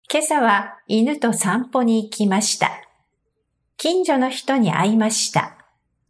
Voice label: this morning normal speed, Japanese